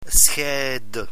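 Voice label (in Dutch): "scheidde", Dutch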